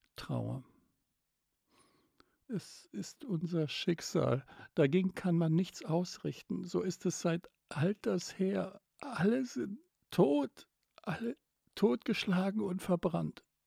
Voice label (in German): trauer